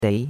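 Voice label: "dei2", Chinese; Cours-de-Chinois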